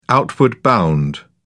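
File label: "outward bound", English, British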